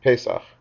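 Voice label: "Pesach", Hebrew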